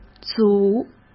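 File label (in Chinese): "组", Mandarin Chinese; chinese-flashcards; hsk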